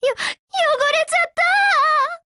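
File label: BREAK, Japanese; GF Alarm; M1897Mod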